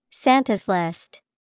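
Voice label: santas list, English vocabulary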